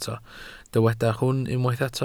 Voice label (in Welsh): "hwn" Welsh